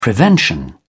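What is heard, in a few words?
"prevention", British English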